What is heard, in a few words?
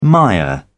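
"mire", British English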